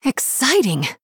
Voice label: Female Human Wow

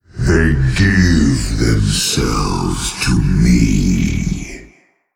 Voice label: Male Old God Trigger Shadowflame

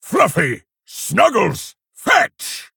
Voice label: Male Orc Play

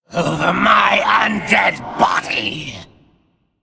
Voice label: Male Undead

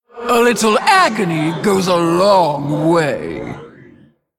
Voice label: Female Darkglare Play

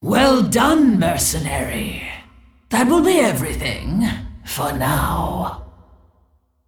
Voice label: Female Dragon Bark; Onyxia

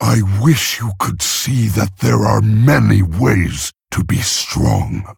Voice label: Male Orc Idle; Thrall; Garrosh; Mission8

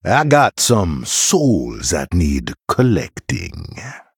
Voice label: Male Troll Bark

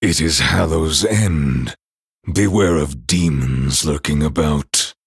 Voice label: Male Night Elf happy Halloween

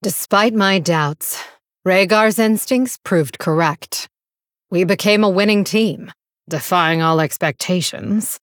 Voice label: Female Blood Elf

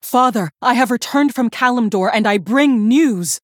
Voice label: Female Human Mission Intro; Cariel; Mission3 Intro

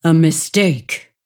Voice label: Female Dragon Emote Oops

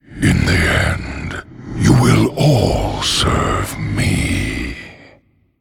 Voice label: male undead; Arthas

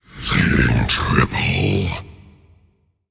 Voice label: Male Faceless Play

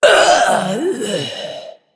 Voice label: Female Dragon Death

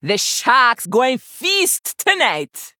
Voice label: Female Troll Loss; Hooktusk

